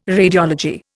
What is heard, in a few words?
"radiology", Indian